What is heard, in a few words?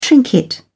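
"trinket", British English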